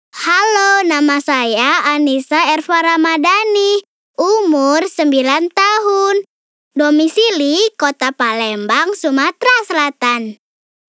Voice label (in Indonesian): VO PERKENALAN DIRI